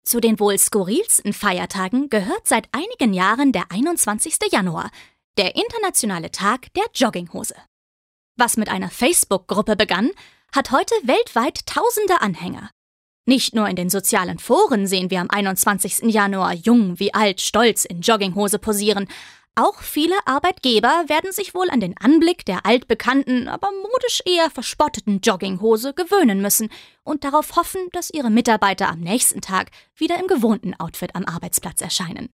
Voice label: Frech

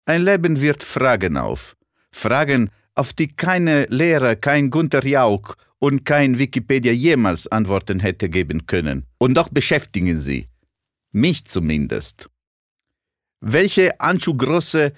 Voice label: Akzent Italienisch

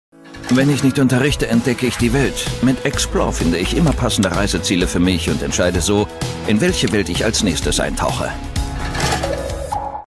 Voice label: Lebendig

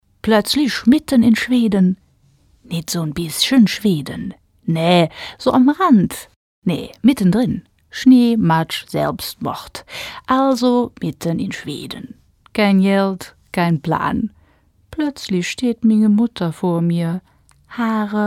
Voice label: Dialekt Rheinisch

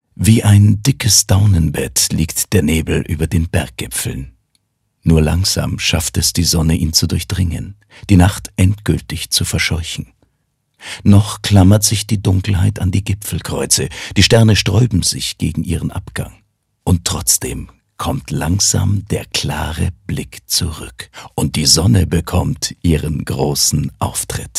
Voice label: Schauspiel Sanft

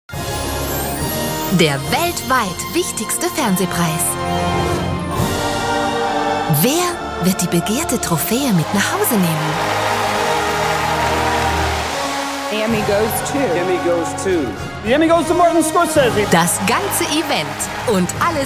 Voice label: Freundlich

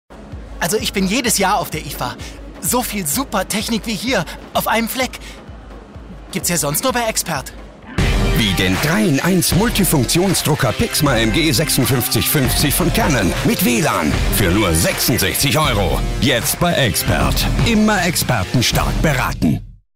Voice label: Dynamisch

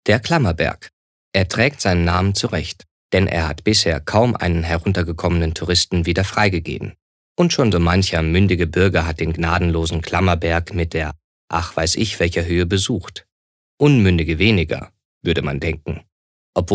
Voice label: Kommentar, Neutral

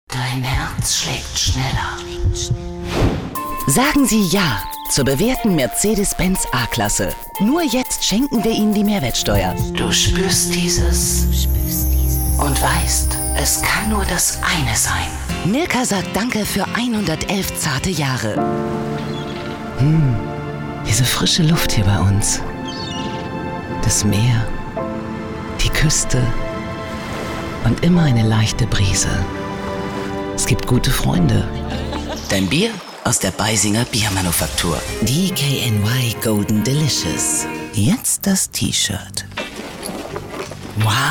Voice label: Werbung, Variabel

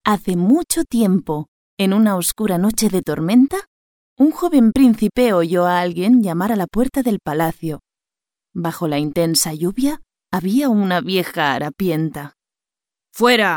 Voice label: ESP Freundlich; Fremdtake

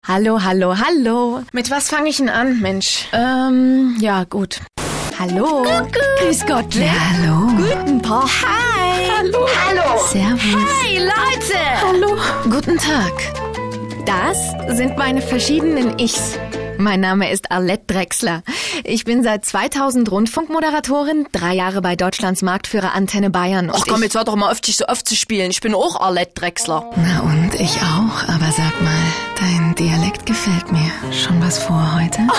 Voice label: Schauspiel Variabel

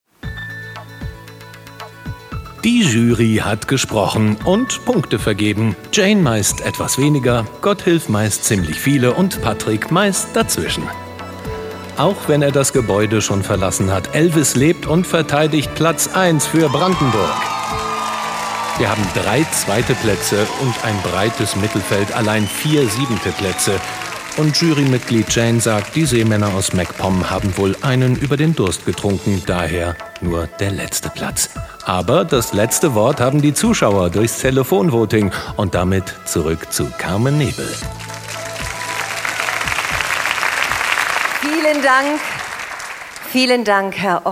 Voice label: Kommentar Freundlich

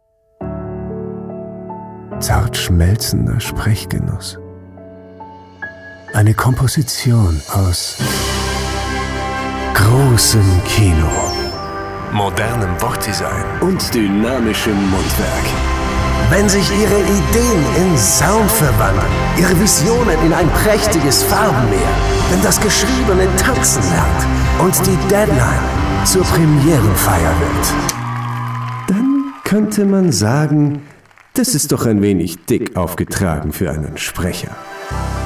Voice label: Schauspiel Variabel

